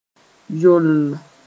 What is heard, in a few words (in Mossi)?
"yolll", moore; with shiny eyes